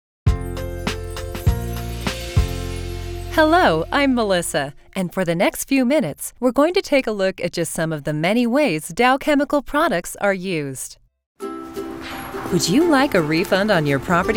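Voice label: English US, Kommentar, Freundlich; Fremdtake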